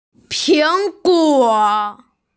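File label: "ping guo", Mandarin Chinese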